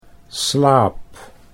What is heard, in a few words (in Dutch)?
"slaap", Dutch